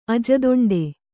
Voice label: "ಅಜದೊಂಡೆ", Kannada

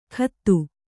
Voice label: "ಕತ್ತು", kannada

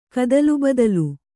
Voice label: "ಕದಲುಬದಲು", Kannada

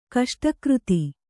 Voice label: "ಕಷ್ಟಕೃತಿ", Kannada

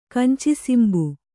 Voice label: "ಕಂಚಿಸಿಂಬು", Kannada

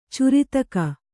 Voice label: "ಚುರಿತಕ", Kannada

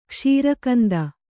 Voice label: "ಕ್ಷೀರಕಂದ", Kannada

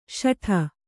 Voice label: "ಶಠ", Kannada

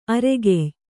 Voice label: "ಆರೋಗ್ಯ", Kannada

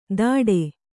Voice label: "ದಾಡೆ" Kannada

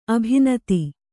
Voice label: "ಅಭಿನೂತೆ", kannada

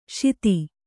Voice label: "ಶಿತಿ", Kannada; śiti; noun; black colour.

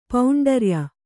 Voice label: "ಪೌಂಡರ್ಯ", Kannada

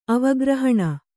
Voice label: "ಅವಗ್ರಹಣ", Kannada

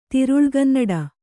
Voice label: "ತಿರುಳ್ಗನ್ನಡ", Kannada

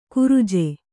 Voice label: "ಕುರಿಜು", kannada

